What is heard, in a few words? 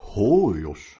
"hoios" Ancient Greek; οἶος hoios; “only”